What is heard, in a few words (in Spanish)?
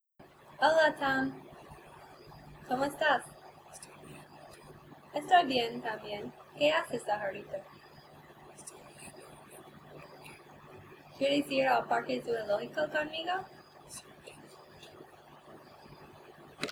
Female Part No Noise, es